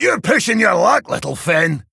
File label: Male Dwarf Play